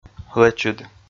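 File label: "лэчыд" Komi, male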